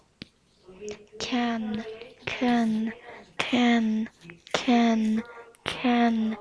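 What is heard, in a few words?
"can", spoken word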